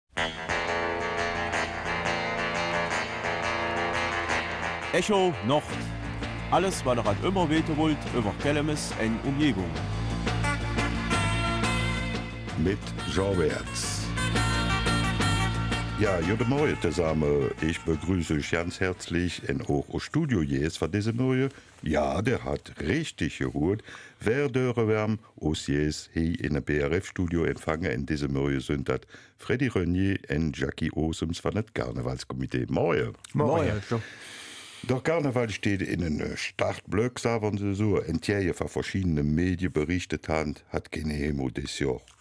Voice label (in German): Kelmiser Mundart